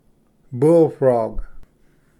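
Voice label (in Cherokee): "bullfrog", Cherokee word, male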